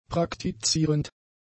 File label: "praktizierend", German; adjectives